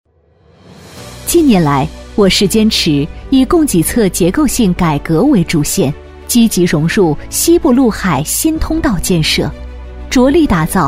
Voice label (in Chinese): 女, 政府汇报, 高质量发展, 正式大气; 大湘西; 女21-大气知性 成熟知性